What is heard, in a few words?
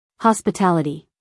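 "hospitality", American English, female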